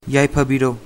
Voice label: good luck, manipuri

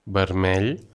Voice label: "red", Catalan

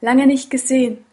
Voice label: long time no see, German; Lange nicht gesehen!

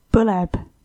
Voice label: fire, estonian

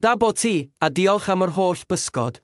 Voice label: so long Welsh

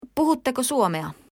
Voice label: do you speak, finnish

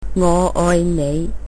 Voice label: iloveyou, cantonese

